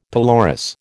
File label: "pelorus", American